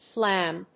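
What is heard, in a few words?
"flambe", English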